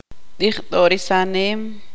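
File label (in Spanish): "congelarse", Tz'utujil, female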